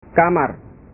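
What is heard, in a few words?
"kamar", Indonesian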